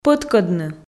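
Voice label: "пӧткӧдны", Komi, female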